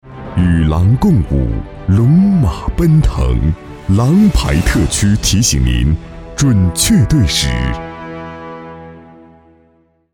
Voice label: 报时; 央视

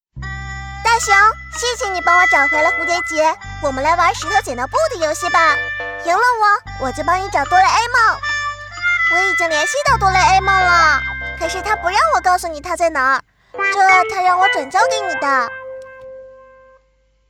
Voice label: 台湾 女童; 哆啦美

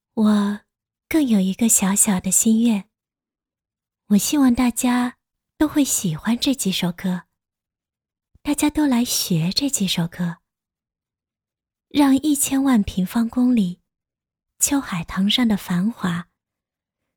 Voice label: 舒缓节奏

